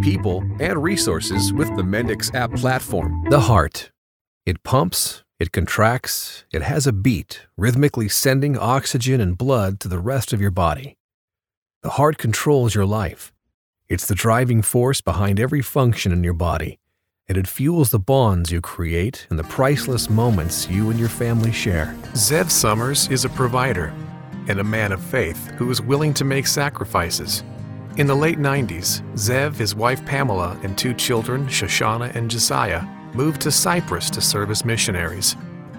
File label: Explainer Web Video